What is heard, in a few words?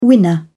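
"winner", British English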